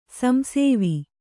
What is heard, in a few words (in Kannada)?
"ಸಂಸೇವಿ", Kannada